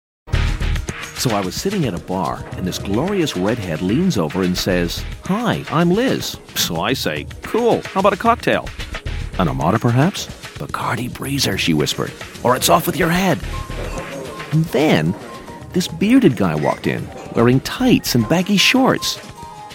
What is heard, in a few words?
advert; bacardi breezer